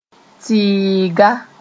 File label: "tɩɩga", moore